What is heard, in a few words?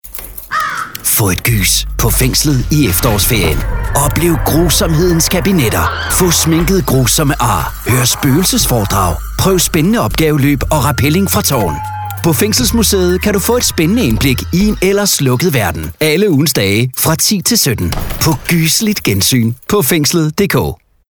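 Danish commercial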